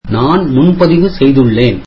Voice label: tamil I have a reservation